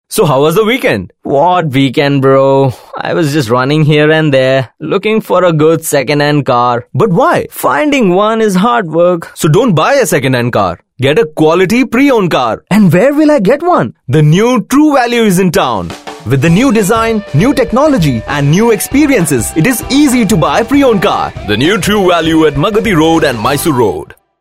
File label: English, male voice; True value